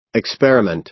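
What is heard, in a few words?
"experiment", American English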